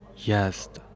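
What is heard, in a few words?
"Yazd", Persian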